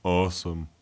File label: "awesome", male